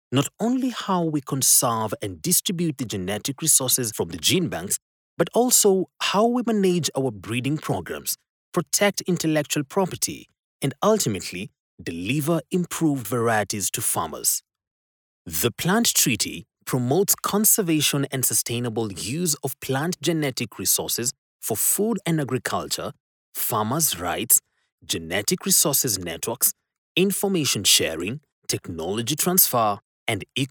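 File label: English African male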